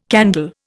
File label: "candle", Indian